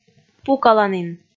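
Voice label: "пукаланін", Komi, female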